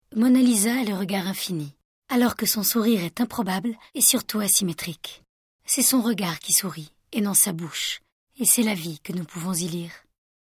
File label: audio guide, French, female